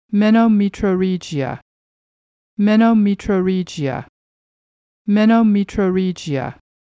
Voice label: "menometrorrhagia", pronunciation

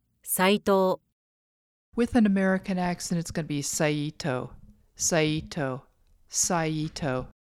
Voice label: "Saito", pronunciation

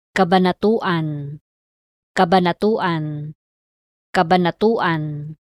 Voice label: "Cabanatuan", pronunciation

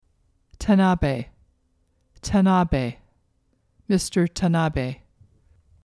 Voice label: "Tanabe", pronunciation

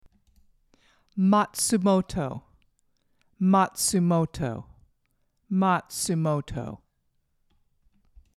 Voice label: "Matsumoto", pronunciation

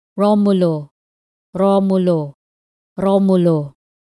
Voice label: "Romulo", pronunciation

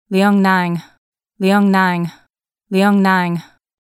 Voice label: "Leung Nang", pronunciation

